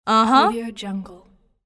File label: female voice uh huh